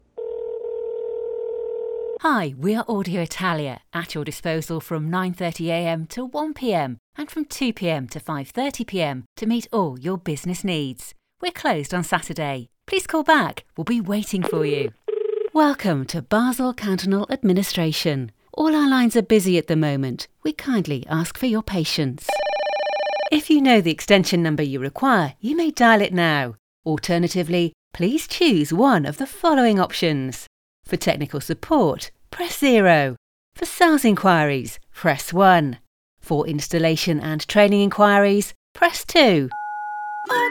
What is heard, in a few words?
Tel on hold